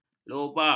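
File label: "loba", Malay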